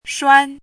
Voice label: "shuān", hanzi